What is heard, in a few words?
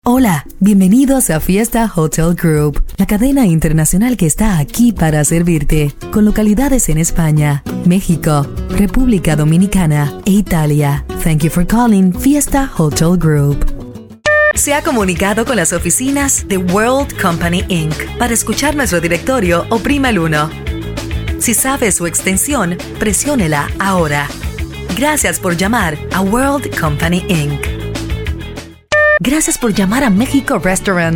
spanish voice over female ivr